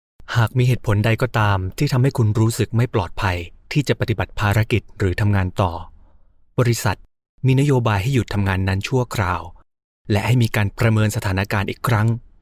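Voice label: Thai, voice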